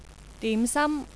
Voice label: dim sum, cantonese